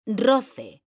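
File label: "roce", Spanish, female; mekanta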